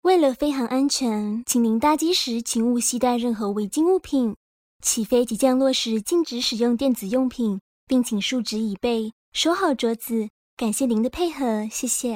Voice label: announce